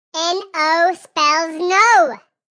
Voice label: Spells No, Female; Exclamation; Voice Box

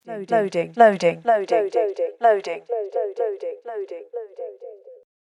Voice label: Loading Female Voice Delay; Recorded Voice Sound Effects; spoken word

